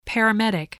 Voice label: "paramedic", English, voice